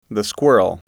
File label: "squirrel", English